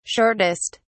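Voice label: "shortest", British English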